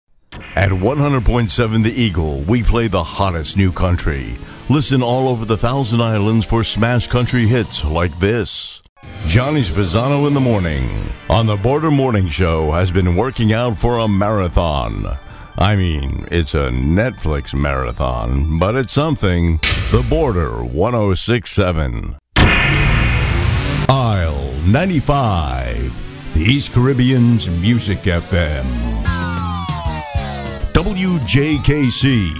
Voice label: SWEEPERS RADIO IMAGING